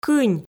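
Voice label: "кынь", Komi, female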